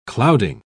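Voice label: "clouding", British English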